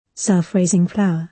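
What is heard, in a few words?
"self-raising flour", British English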